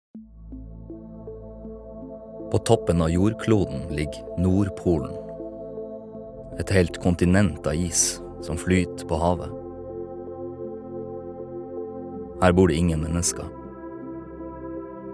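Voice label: Norwegian documentary, norsk mann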